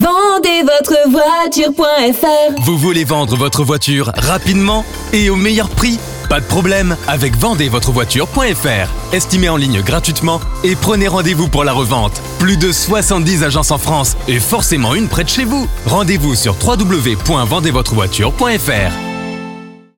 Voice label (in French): Spot Radio, Dynamique Souriant Promo